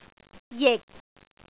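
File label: "jik6", Cantonese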